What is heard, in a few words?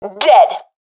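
i killed enemy, professional female; vo; Counter Strike Model Pack; CS:GO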